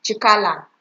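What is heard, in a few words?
"chikala", Nigerian Pidgin, female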